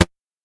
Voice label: glitch Talk; todee and todpee